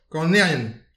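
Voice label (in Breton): "kanerien", Breton; petit precis de prononciation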